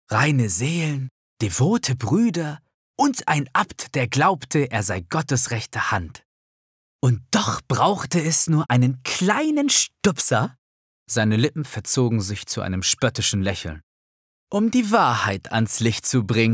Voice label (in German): Hörbuch Horror thriller; teuflisches Kloster